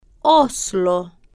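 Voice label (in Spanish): "oslo", Spanish, female, slow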